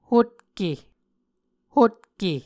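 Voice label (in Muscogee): "hutke", Muscogee word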